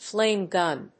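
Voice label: "flame gun", English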